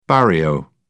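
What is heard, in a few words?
"barrio", British English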